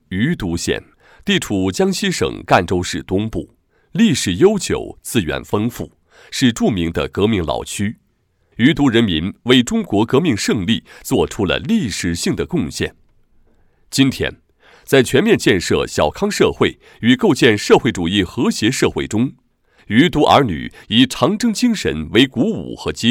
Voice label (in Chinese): 男 国语 磁性 激情, 专题; 革命老区